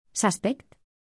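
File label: "suspect", English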